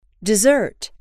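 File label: "dessert", English, female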